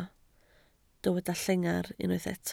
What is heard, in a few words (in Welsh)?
"llengar", Welsh